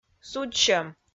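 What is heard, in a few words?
"судзсьӧм", Komi-Zyrian, female; audio dict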